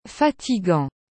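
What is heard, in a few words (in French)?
"fatigant", French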